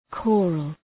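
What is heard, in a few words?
"choral", English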